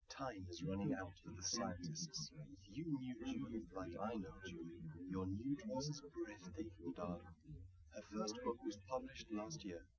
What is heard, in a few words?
male liverec; SiSEC11; underdetermined